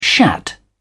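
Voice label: "shat", British English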